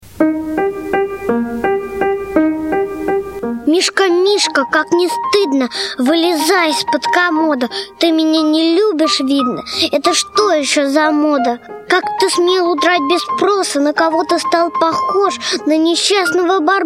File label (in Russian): Audioskazka dlya malyshej; Pro devochku kotoraya nashla svoego mishku